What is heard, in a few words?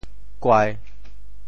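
"kuai1", Teochew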